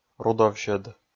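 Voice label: "рудовсьӧд", Komi, male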